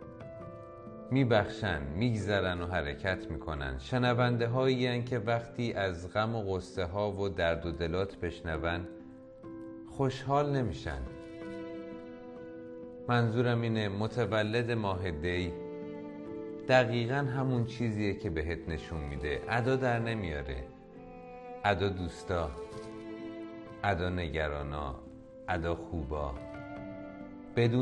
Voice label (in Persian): دکلمه متولدین دی ماه